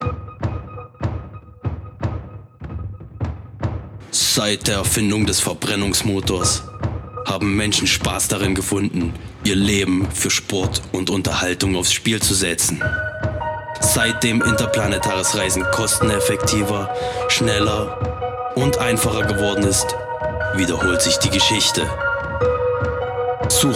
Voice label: Intro Vox German; quantum runners windows gamerip 2021